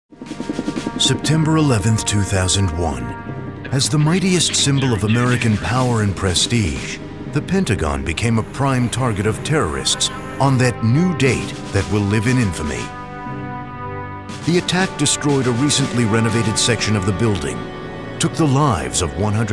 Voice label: Narration